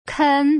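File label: "ken", Mandarin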